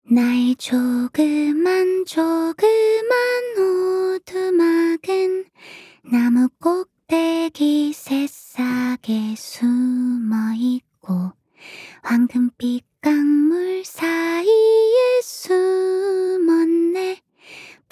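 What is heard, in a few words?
voice Korean idle; Fina; Feina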